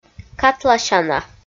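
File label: "катласяна", Komi, female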